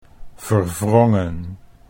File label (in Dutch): "verwrongen", Dutch